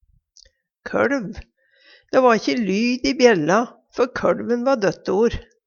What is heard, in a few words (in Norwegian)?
koLv, Numedalsmål